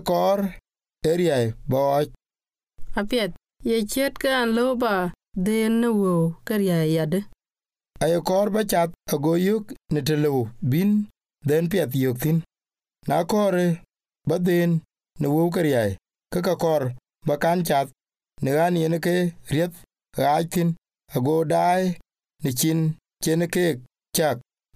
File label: types of credit dinka